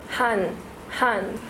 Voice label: han female